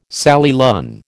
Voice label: "Sally Lunn", American

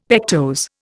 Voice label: "pectose", Indian; Dictionary

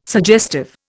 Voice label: "suggestive", Indian

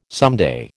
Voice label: "someday", American

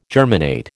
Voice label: "germinate", American

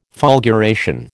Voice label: "fulguration", American

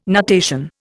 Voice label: "nutation", Indian

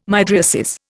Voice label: "mydriasis", Indian

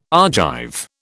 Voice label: "Argive", British

